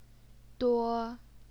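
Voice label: "duo", first tone, Chinese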